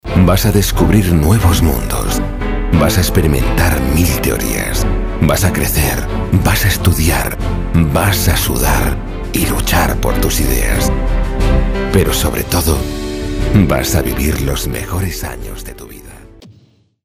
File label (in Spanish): Masculino; Sonoarte